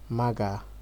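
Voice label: "maga", Nigerian Pidgin, male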